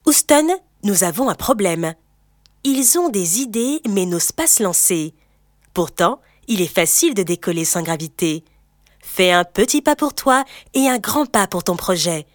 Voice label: dynamique incitatif convivial rassurant souriant Spot Publicitaire; CEL

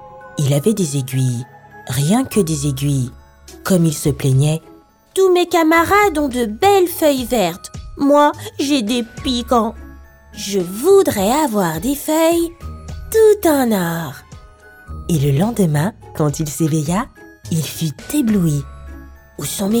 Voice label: Conte narration femme petit garçon dépité puis surpris et joyeux; Le petit sapin

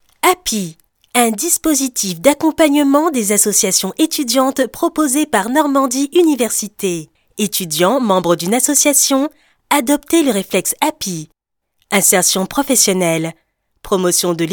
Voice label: dynamique explicatif incitatif motion design, voix off; APIE

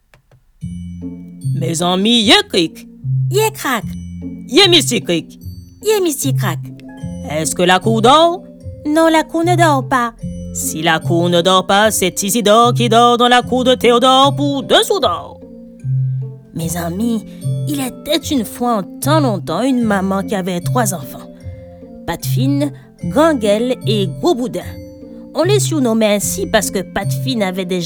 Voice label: Conte créole en français accent créole 3 voix 3 tons; PAT FIN GRANGèL ET GROBOUDIN

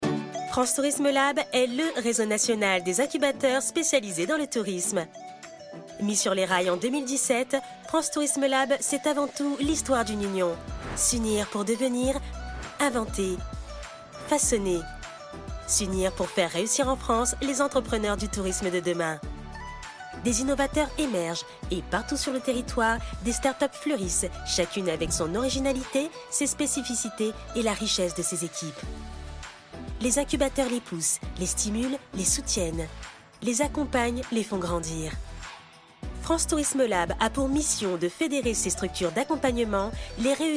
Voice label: institutionnel, voix off; FRANCE TOURISME LAB

